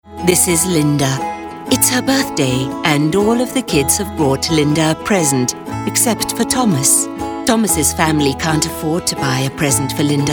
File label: english international e-learning